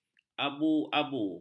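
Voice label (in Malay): "abu-abu", Malay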